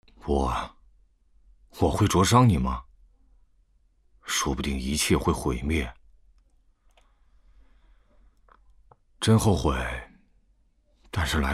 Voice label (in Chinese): 角色中年男样音